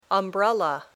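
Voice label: "umbrella", English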